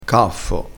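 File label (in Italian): "caffo", vocabolario dialettale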